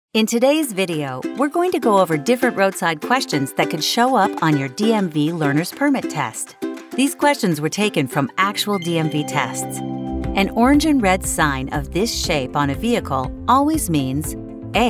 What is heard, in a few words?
female narration